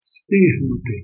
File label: "stignuti", Ukrainian